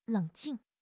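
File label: "leng3 jing4", Chinese; HSK4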